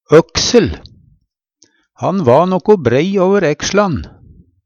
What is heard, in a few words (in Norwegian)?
okseL, Numedalsmål; øksel